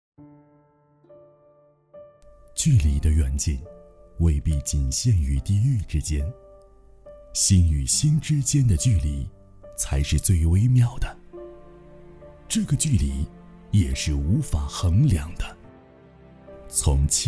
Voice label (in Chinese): 自述旁白